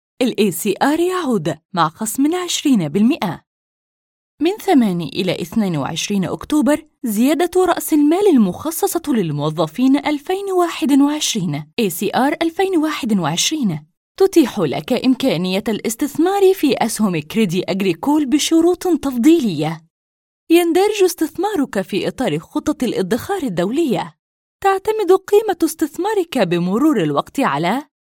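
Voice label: Arabic Corporate; GoLocalise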